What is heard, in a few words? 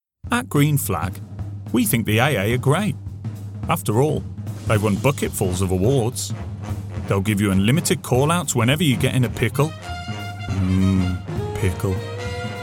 Commercial